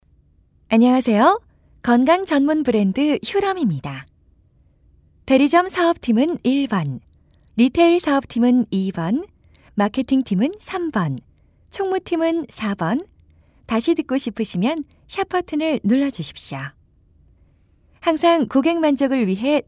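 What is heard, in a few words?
Korean, female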